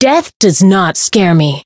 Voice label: Voice variable chant; minalca